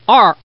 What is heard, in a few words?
Man